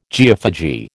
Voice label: "geophagy", American

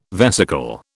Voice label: "vesicle", British

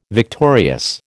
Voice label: "victorious", American

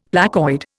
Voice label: "placoid", Indian; Dictionary

